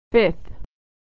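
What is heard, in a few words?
"fifth", American English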